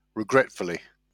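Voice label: "regretfully", English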